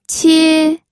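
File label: "qie", Mandarin Chinese, first tone; pinyin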